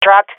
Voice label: truck, swat fem; player vo; Counter Strike Model Pack; CS:GO

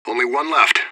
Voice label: radio bot kill count; fbihrt

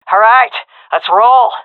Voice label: request move, seal fem; vo; agents; Source Model Packs; Counter Strike Model Pack; CS2

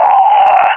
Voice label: death, balkan; vo; agents

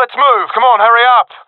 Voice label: request move, professional epic, agents; vo; Source Model Packs; Counter Strike Model Pack; CS2

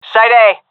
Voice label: loc a, seal fem; vo; Counter Strike Model Pack; CS:GO

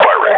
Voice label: firing, combine grunt; Half Life Model Pack

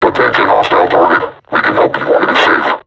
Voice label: taunt, vo combine suppressor; Half Life Alyx

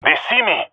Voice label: taking fire, jungle male epic; Source Model Packs; Counter Strike Model Pack; CS2

